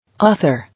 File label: "Author", English